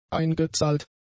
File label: "eingezahlt", German; adjectives